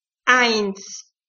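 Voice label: "eins", German, one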